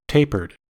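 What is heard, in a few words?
"tapered", US English; pronunciation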